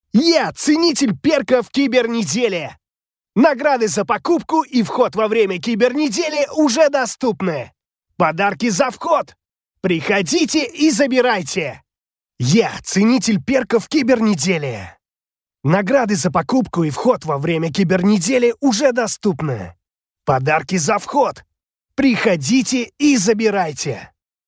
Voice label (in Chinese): 俄语男声 角色游戏